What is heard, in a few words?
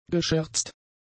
"gescherzt", German; adjectives